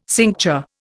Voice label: "cincture", Indian